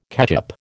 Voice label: "ketchup", American